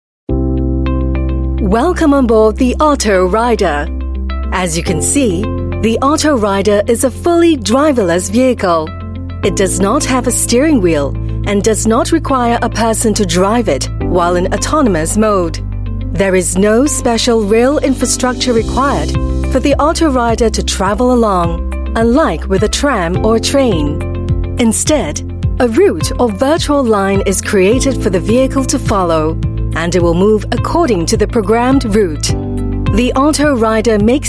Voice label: Asian English; Gardens By The Bay Singapore